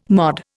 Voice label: "MOD", Indian